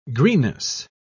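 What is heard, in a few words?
"greenness", British English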